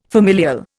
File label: "familial", Indian